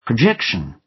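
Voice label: "projection", British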